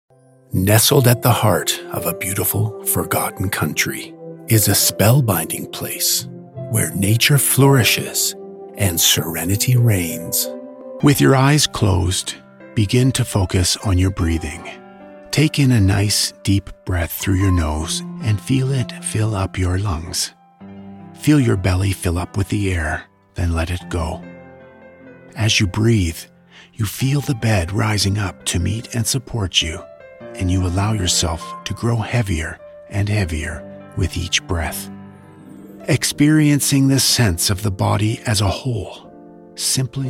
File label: Voiceovers Meditation Hypnosis Sleep Stories